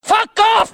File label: fuck off angry